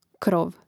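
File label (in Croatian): "krov" Croatian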